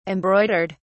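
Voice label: "embroidered", British English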